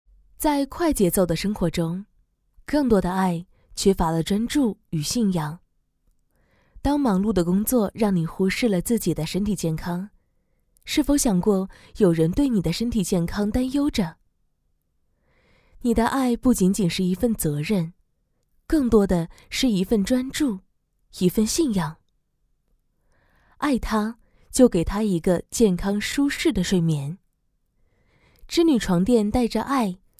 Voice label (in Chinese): 女 专题; 织女寝具淘宝视频